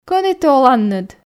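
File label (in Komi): Komi, кӧні ті оланныд, female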